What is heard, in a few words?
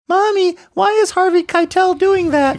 saying mommy why is doing that kid; harvey keitel